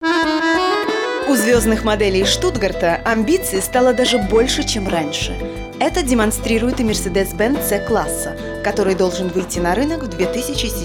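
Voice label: Russian, female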